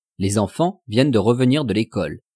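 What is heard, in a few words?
"les enfants viennent de revenir de lecole", French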